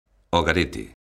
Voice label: "ao garete", lingua galega; locución adverbial; ɔɣaˈɾete̝

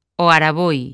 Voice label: "ao araboi", lingua galega; locución adverbial; ɔaɾaˈβoj

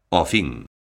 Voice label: "ao fin", lingua galega; locución adverbial; ɔˈfiŋ

